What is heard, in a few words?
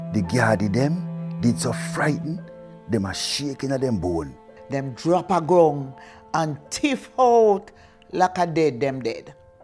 verse, jamaican creole